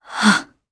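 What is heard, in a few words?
Casting, Japanese; Isolet; kingsraid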